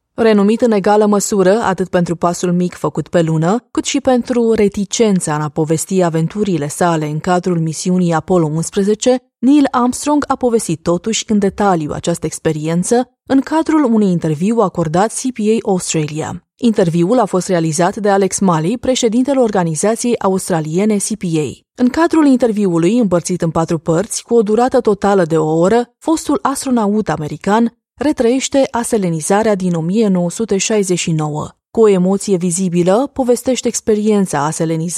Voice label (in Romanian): Romanian Narration, Female